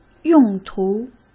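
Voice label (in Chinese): "用途", Mandarin; chinese-flashcards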